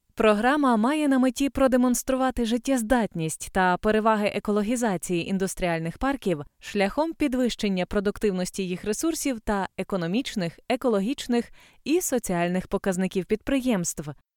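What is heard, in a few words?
Ukrainian, female, corporate; voice-over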